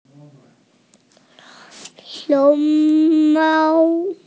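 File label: "panda", Mandarin Chinese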